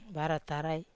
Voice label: "vara tarai", Wamesa